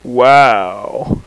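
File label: "wow", male; voice; Web Clip Empire 150,000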